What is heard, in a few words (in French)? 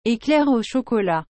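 "éclair au chocolat", French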